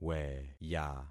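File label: "weya", concatenated syllables; Affixing experiment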